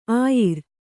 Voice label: "ಆಯಾರ", Kannada

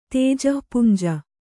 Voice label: "ತೇಜಪುಂಜ", Kannada

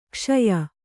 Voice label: "ಕಷಾಯ", Kannada

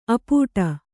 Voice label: "ಅಪ್ಪಟೆ", Kannada

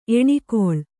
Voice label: "ಎಣ್ಗೊಳ", Kannada

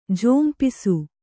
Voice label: "ಝೋಂಪಿಸು", kannada

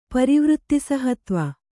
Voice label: "ಪರಿವೃತ್ತಿಸಹತ್ವ", Kannada

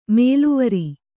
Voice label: "ಮೇಲೆವರ್", Kannada

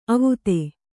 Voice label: "ಅವತ್ತು", Kannada

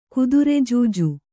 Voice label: "ಕುದುರೆಜೂಜು", Kannada

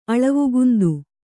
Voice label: "ಅಳವುಗುಂದು", Kannada

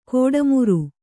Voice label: "ಗಡಿಮೀರು", Kannada

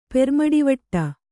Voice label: "ಪೆರ್ಮಡಿವಟ್ಟ", Kannada

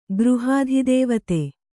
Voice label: "ಗೃಹಾಧಿದೇವತೆ", Kannada

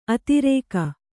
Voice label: "ಅದುರುಗ", kannada

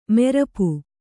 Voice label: "ಮಾರಾಪು", Kannada

